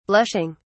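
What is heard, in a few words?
"blushing", British English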